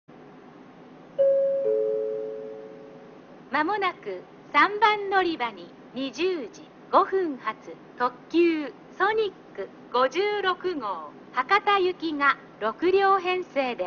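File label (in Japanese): 3番のりば予告放送, 中津駅; ソニック60号 博多行き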